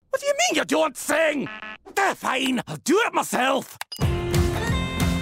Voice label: scottish accent